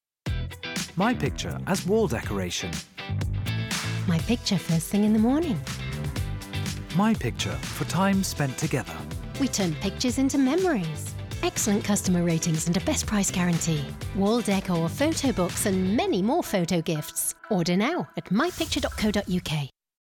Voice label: TV spot